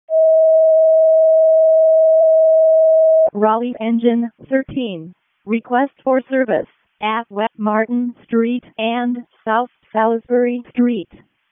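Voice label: Raleigh Fire Department ALERT, p25; wake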